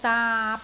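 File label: "daap3", Cantonese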